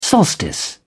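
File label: "solstice", English, British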